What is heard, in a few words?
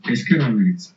thanks, basque